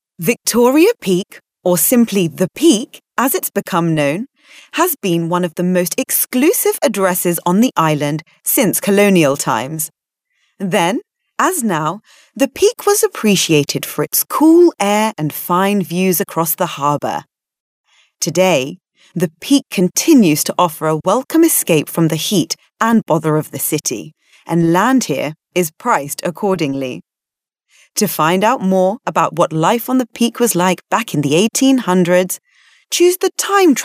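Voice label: British female corporate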